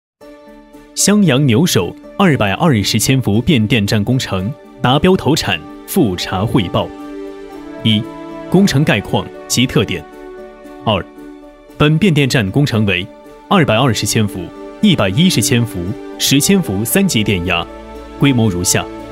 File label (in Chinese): 男 专题 工程片 变电站; 襄阳牛首变电站